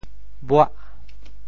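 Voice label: "buah8", Teochew; 末; mò; 潮州; 白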